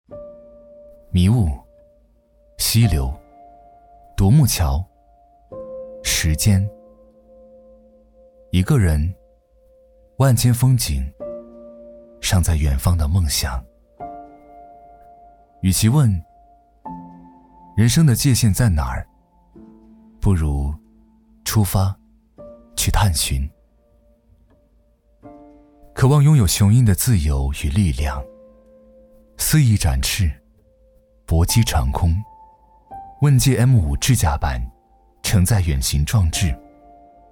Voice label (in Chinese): 松弛讲述; 问界路书新疆